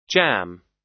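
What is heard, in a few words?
"jam", US English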